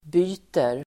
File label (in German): byter, learn german